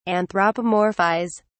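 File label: "anthropomorphise", English, British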